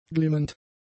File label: "glimmend", German; adjectives